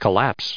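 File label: "collapse", English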